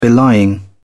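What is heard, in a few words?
"belying", British English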